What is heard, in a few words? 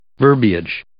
"verbiage", English